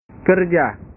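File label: "kerja", Indonesian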